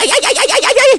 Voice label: Pain, A voice clip; Mario